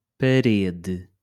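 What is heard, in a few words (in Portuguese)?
"parede", português europeu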